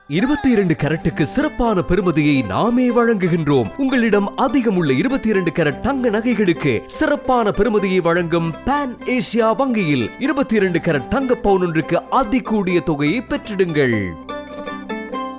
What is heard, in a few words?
Promo TVC Tamil; Pan Asia Bank; Ran Aththama 22K